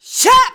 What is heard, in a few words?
"SHAP", SHOUTS; Best Service; Hyper Dance